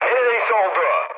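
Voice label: Turkish bomb failure, mood med, speech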